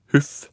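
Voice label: "huff", Norwegian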